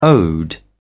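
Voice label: "ode", English, British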